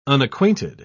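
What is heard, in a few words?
"unacquainted", British English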